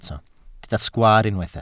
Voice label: "sgwaar", Welsh

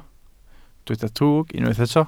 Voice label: "drwg", Welsh

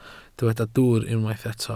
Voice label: "dwwr", Welsh

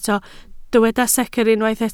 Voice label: "secr", Welsh

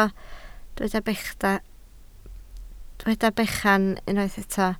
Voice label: "bechan", Welsh

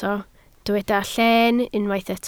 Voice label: "lleen", Welsh

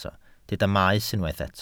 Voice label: "maes", Welsh

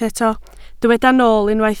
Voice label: "nool", Welsh

